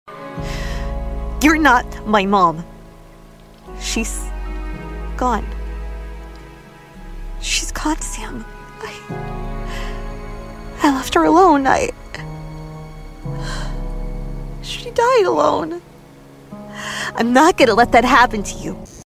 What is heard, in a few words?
Grieving daughter; video game